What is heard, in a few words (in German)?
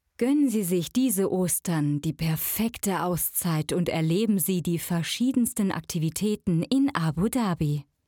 Werbespot